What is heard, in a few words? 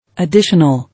"Additional", English